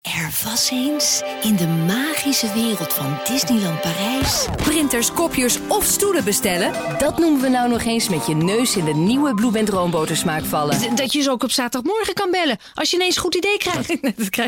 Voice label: Dutch, f; telephony